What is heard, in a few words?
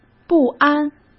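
"不安", Mandarin Chinese; hsk; chinese-flashcards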